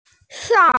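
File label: "shang4", Mandarin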